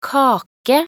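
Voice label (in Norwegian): "kake", Norwegian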